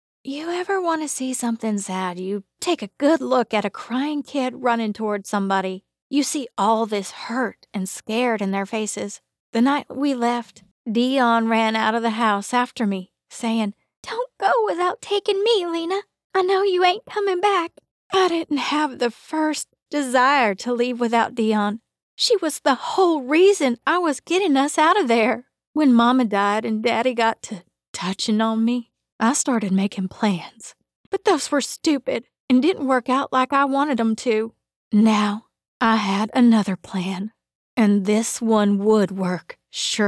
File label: Southern